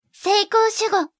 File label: voice, dubbing